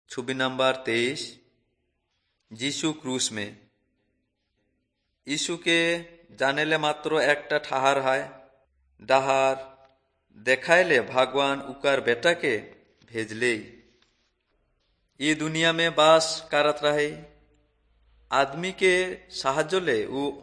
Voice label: urao, drama